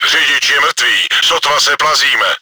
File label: driver killed, cz; Czech; World of Tanks